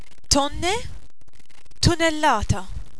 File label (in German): tonne, Maltesisch; masse